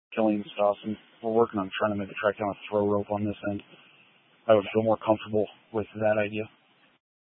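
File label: Incident Tactical 1, Scanner audio recording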